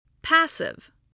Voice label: "passive", English, voice